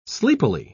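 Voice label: "sleepily", British English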